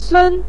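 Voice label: "sun" neutral tone, Mandarin Chinese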